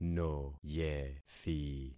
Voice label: "noyethi", concat syllables; Affixing experiment